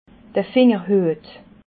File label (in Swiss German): "fingerhuet", alsace